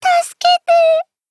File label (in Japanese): VO jp